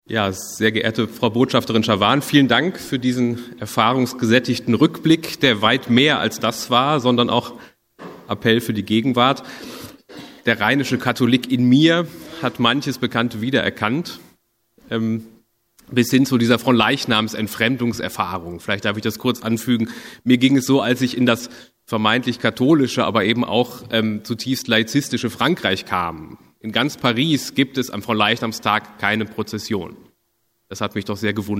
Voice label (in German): anmoderation sprecher